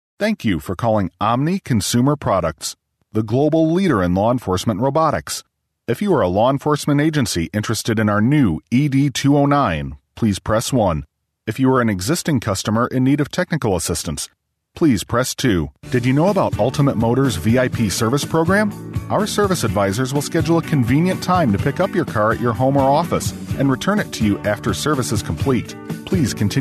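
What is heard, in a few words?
English US male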